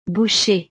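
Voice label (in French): "boucher", French; profesiones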